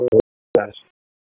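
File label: Police Downtown District Dispatch, p25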